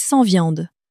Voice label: "sans viande", French; flashcards